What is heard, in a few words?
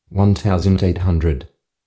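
altitude 1800, Australian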